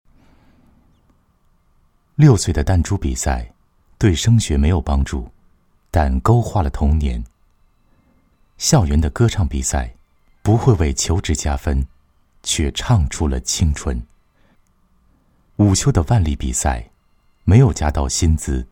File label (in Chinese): 男 广告, 国语男声配音 温情人物专题; JJ比赛